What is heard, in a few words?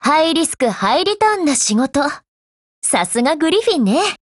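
Japanese, Voice; GF Alarm; Px4Storm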